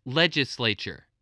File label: "legislature", spelling